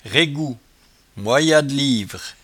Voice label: "raigout", patois jura

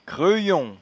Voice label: "creuyonpipe", patois jura

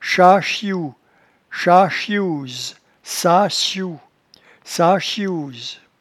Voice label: "chachiou", patois jura

